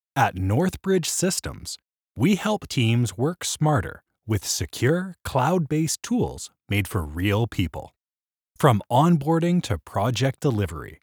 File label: Corporate Narration Warm Friendly, male voice over